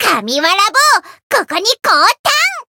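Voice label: variable chant, Japanese; makiwara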